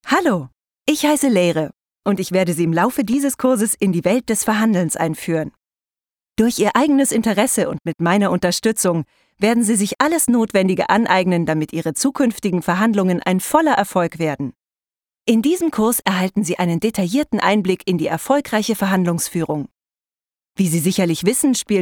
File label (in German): de elearning, deutsch frauen; sprecher